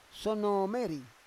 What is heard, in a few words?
"sono me ri", Roon